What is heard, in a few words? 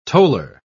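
"toller", British English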